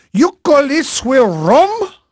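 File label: Portuguese male, voice; Pirates Of The Caribbean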